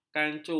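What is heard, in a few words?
"kangcu", Malay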